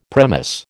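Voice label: "premise", American English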